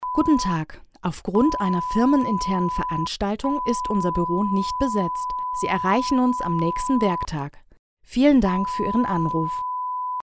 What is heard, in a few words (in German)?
Sprecherin piep Ansage